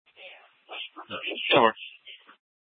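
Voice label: police dispatch, Scottsdale PD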